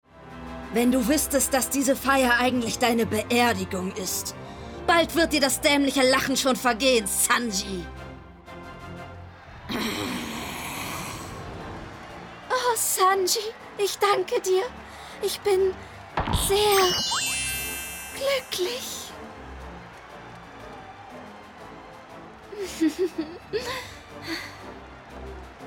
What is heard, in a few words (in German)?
Anime Synchron